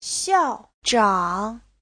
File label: "xiao4zhang3", Chinese